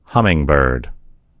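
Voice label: "hummingbird", American English; gcompris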